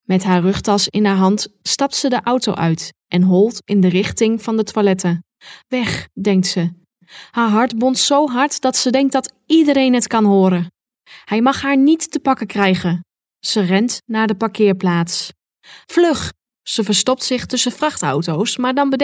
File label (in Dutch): Documentaire en boekfragmenten